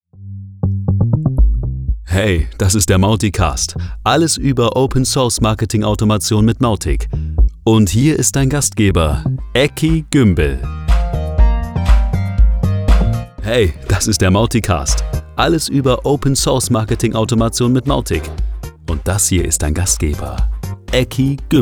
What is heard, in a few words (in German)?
Sprechprobe Podcast Ansage